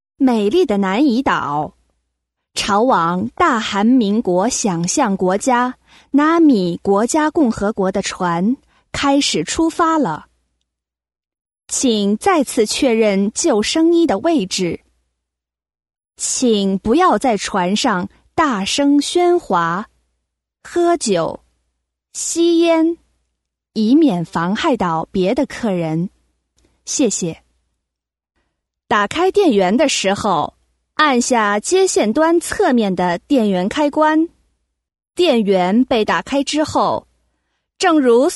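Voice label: Chinese, female, various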